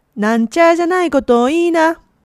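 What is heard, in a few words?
"nanchajanai", Japanese dialect, woman; hogen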